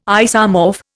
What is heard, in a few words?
"isomorph", Indian